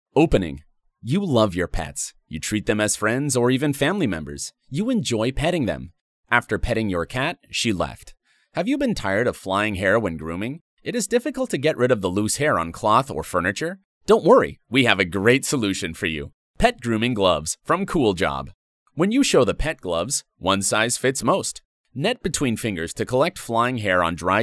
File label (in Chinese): 宠物款视频旁白